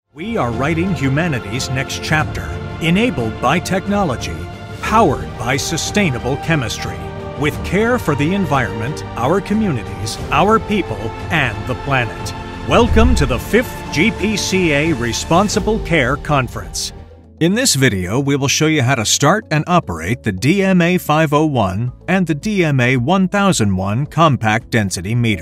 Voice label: Corporate & Explainer